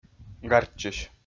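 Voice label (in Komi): "гартчысь", Komi, male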